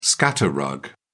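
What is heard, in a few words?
"scatter rug", British English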